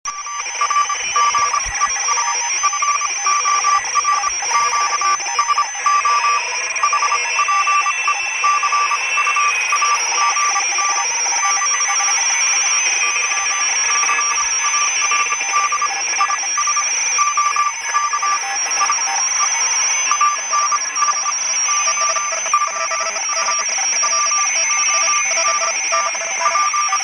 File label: QSO